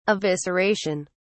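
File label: "evisceration", British English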